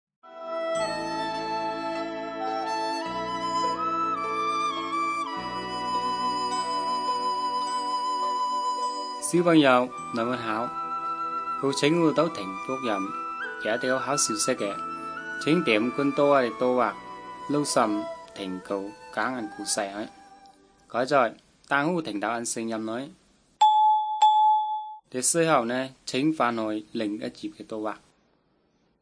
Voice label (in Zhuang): good news zhuang nanning fushu